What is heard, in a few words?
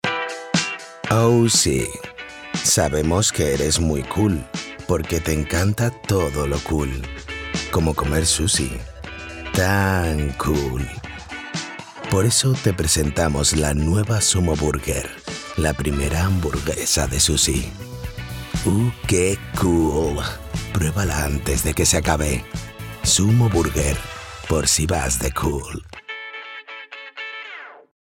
casual; SUMO